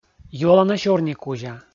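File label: "юалана сёрникузя", Komi, male; kpv audio dict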